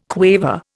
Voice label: "quaver", Indian

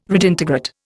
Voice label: "redintegrate", Indian

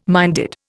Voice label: "minded", Indian

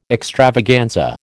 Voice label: "extravaganza", American

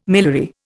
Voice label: "miliary", Indian

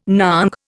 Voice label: "nong", Indian

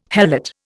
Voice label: "helot", Indian

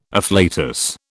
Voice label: "afflatus", British

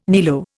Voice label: "niello", Indian

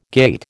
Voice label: "gait", American

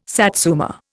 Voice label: "satsuma", Indian

